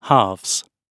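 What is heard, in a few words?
"halves", British English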